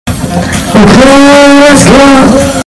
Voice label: earrape okayyyyy lets go

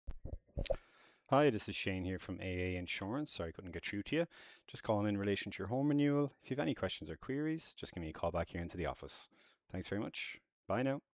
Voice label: voicemail

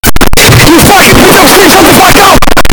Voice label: angry earrape

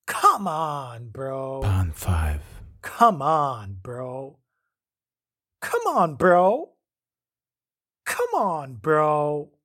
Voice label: male voice saying come bro

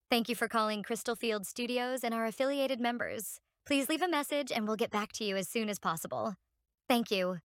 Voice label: voicemail

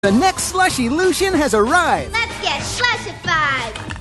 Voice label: infomercial; squeezy freezy

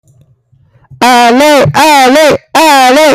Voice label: distorted

